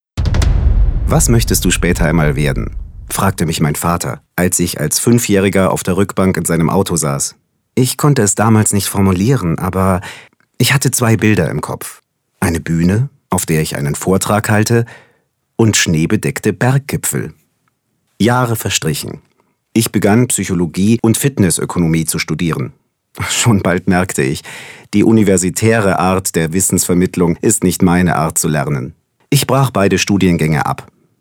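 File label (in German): Erzählung; sprecher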